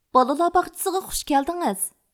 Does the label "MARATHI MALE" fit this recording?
No